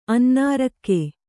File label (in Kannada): "ಅನ್ನಾರಕ್ಕೆ", kannada